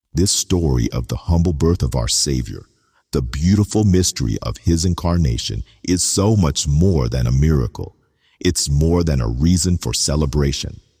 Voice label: Narration